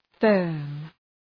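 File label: "firm", English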